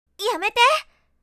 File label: "Stop" anime girl character; cartoon